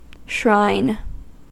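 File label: "shrine", American English; pronunciations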